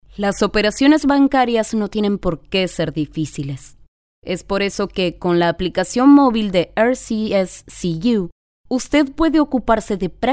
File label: Professional